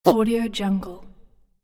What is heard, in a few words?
small critter game character voice